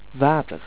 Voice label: water, dutch

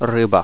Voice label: fish, russian

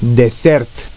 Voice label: "dessert", romanian

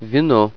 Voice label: "wine" Russian

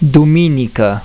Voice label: "duminica", romanian; tijden

